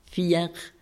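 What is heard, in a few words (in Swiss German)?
"viar", Alsatian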